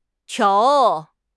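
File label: "tiao2", Mandarin Chinese; HSK3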